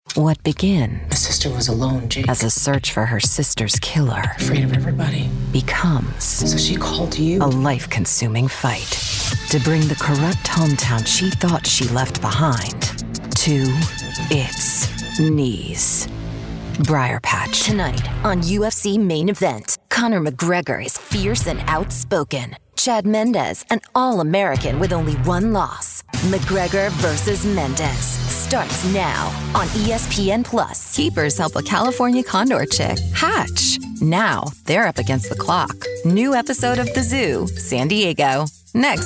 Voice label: Female Promo

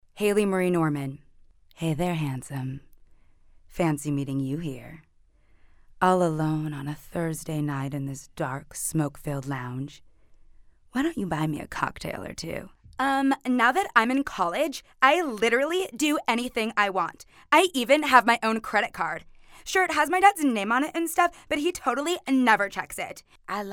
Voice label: Female Animation

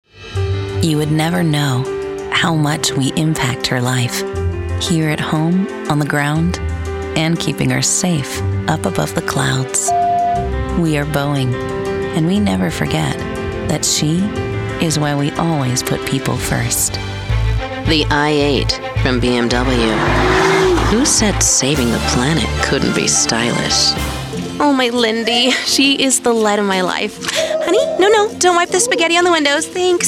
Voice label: Commercial

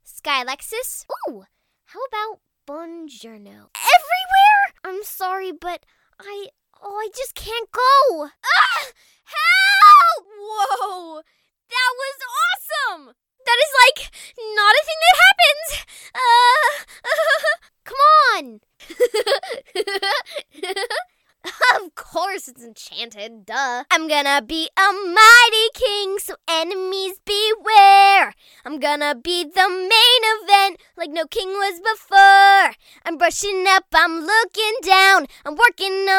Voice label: Animation

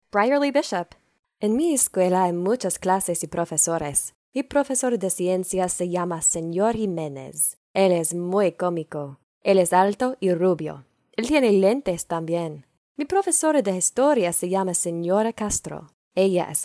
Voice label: Female Spanish

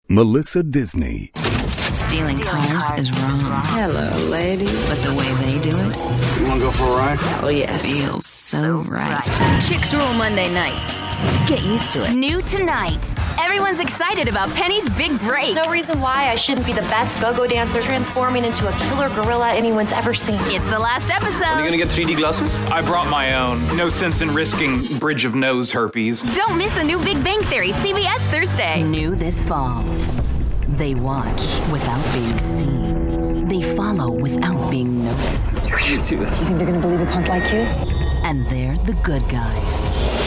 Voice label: Female Promo

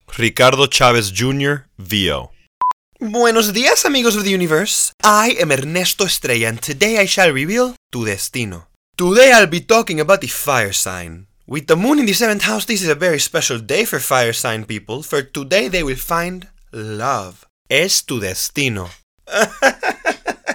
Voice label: Male Animation

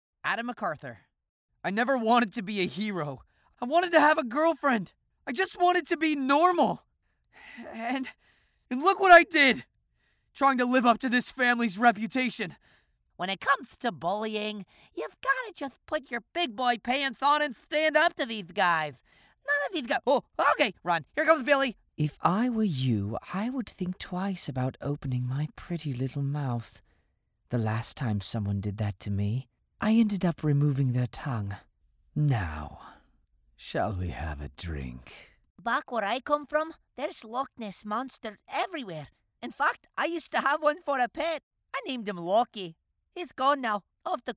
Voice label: Male Animation

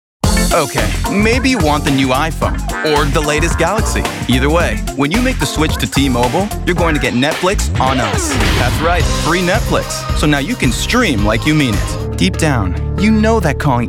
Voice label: Male Commercial

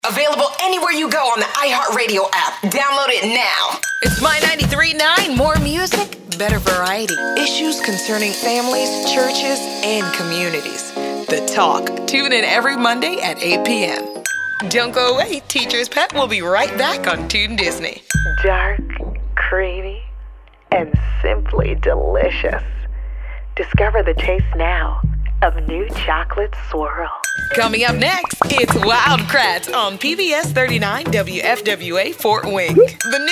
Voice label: Commercial

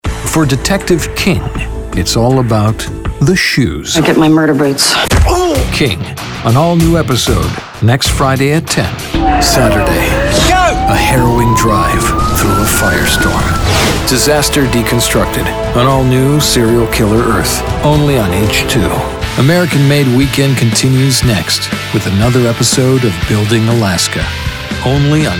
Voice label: Male Promo

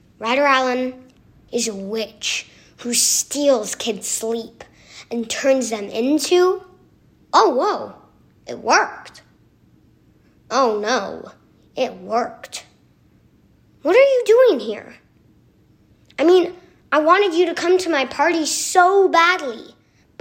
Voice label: YOUTH; CESD Samples

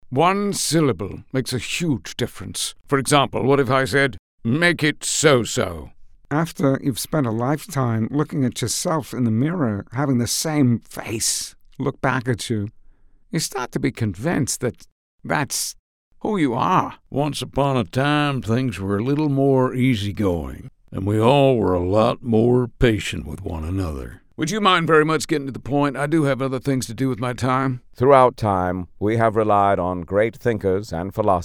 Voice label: Impressions

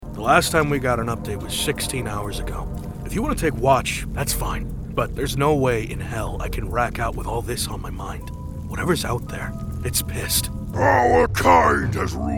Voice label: Male Character

